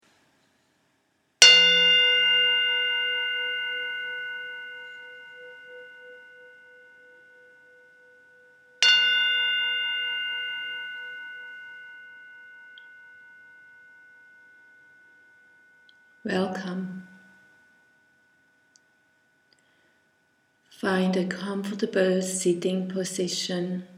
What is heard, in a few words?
Meditation English